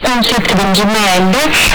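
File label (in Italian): "onde", italiano; onde, credi, manda!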